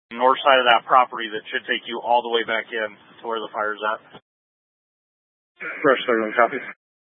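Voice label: Incident Tactical 2, Scanner audio recording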